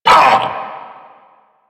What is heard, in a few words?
Vocal Pain